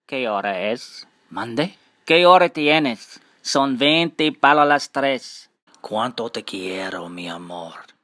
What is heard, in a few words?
Spanish